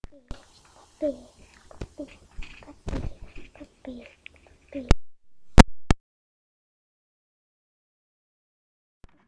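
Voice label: "puppy", spoken word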